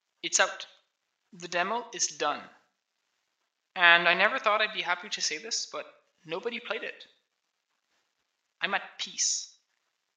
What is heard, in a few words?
voice, narration